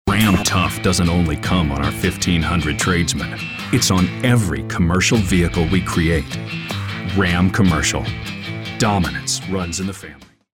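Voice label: American English, male, commercial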